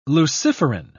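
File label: "luciferin", British English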